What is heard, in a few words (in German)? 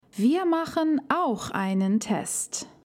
Aussprache Ach-laut; DaF